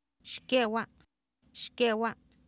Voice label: xkEewA, Oapan minimal pairs female; Oapan minimal pairs 1998

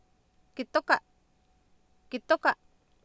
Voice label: "kitoka" Nahuatl, Oapan, female; Oapan minimal pairs 2000

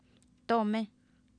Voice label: Oapan minimal pairs, female; Oapan minimal pairs 1998

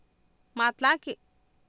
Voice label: "maatlaaki" Nahuatl, Oapan, female; Oapan minimal pairs 2000

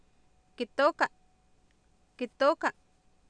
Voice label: "kitooka" Nahuatl, Oapan, female; Oapan minimal pairs 2000

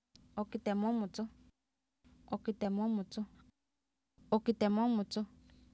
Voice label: ookitemomotsoh, Oapan minimal pairs female; Oapan minimal pairs 1998

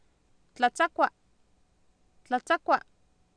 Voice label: "tlatsakwa" Nahuatl, Oapan, female; Oapan minimal pairs 2000

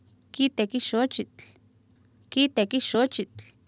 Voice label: kIitekih xoochitl, Oapan minimal pairs female; Oapan minimal pairs 1998

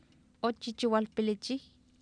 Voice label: "oochiichiwalpilichiw", Oapan, female; Oapan minimal pairs 1998

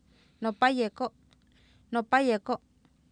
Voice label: nopA yekO, Oapan minimal pairs female; Oapan minimal pairs 1998

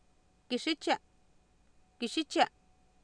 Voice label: "kixitia", Oapan, female; Oapan minimal pairs 2000

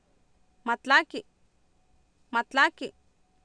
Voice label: "ma tlaaki" Nahuatl, Oapan, female; Oapan minimal pairs 2000

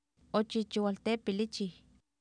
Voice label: "oochiichiwaltEepilIchiw", Oapan, female; Oapan minimal pairs 1998

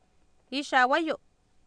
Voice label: "iixaawayo", Oapan, female; Oapan minimal pairs 2000

